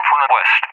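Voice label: "from the west", English, UK; DCS; Allied Flight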